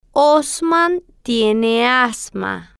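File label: "osman tiene asma", Spanish, female, slow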